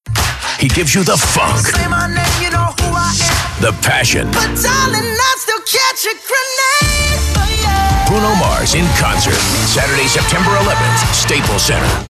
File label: concert promo